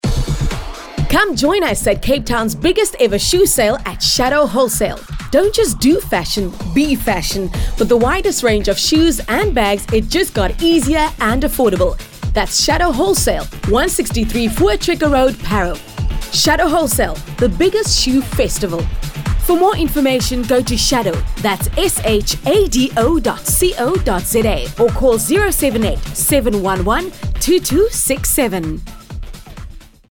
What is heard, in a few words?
radio spot